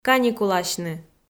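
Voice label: "каникуласьны", Komi, female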